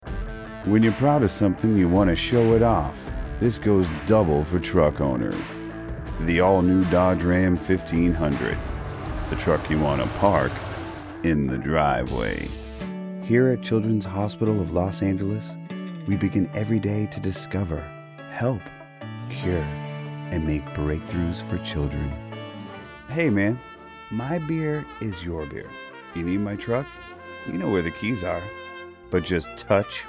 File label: Commercial, vo